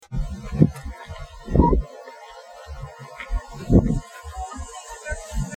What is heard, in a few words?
lil girl voice